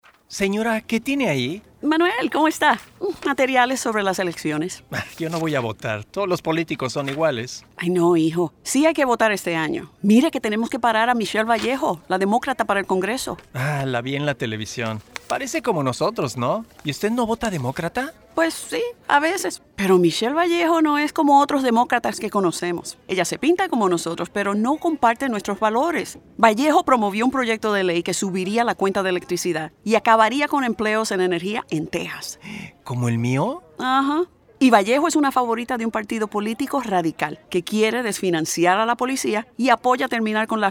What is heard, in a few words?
Spanish radio; NRCC; Radical